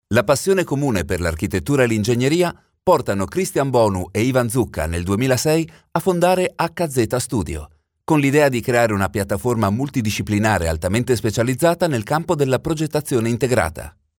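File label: italian male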